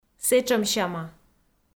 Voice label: "сэтшӧмсяма", Komi

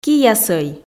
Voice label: "киясӧй", Komi

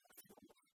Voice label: "улыс воч", Komi

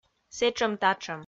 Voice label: "сэтшӧм-татшӧм", Komi

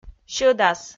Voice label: "сьӧдас", Komi

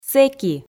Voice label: "сэки", Komi

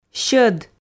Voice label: "сьӧд", Komi

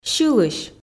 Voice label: "сьылысь", Komi

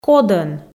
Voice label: "кодӧн", Komi

